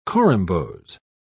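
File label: "corymbose", British English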